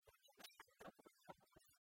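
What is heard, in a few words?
"унджык шань да бур ёртӧс", Komi, female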